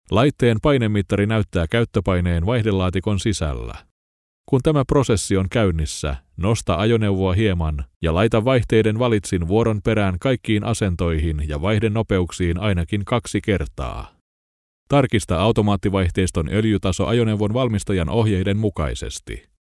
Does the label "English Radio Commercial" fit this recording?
No